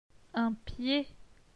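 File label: "Un pied", French; phonetique; les voyelles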